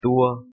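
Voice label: "tua", Proto-Indo-European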